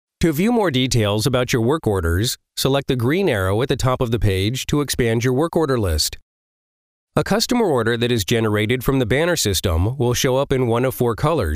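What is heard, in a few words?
training voice over; ProVoiceUSA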